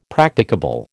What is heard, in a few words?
"Practicable", American